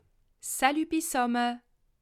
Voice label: "salut", alsace

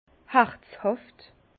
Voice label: "herzhaft", Bas Rhin; vin; chaleureux

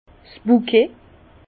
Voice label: "bukett", Bas Rhin; vin; bouquet

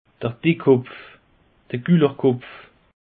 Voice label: "dickkopf", alsace; peche

